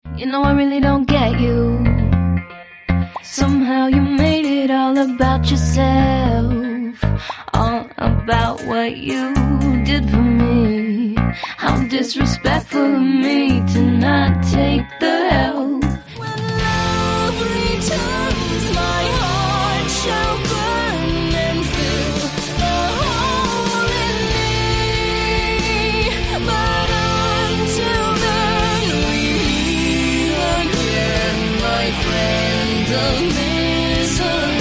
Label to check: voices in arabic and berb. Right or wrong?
Wrong